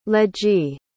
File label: "ledgy", pronounce